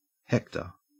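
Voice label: "hector", Australian English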